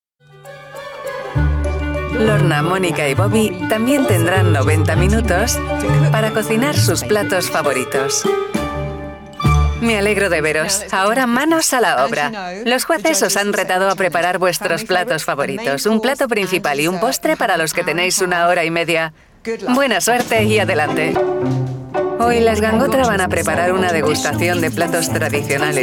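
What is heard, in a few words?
Spanish Europe, Characters; GoLocalise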